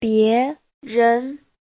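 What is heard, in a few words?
"biérén", Mandarin Chinese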